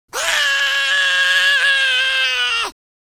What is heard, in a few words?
scared; Capone